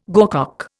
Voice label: "gorcock", Indian